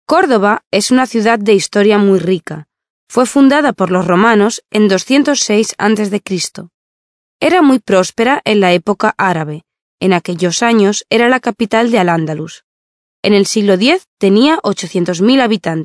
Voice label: spanish female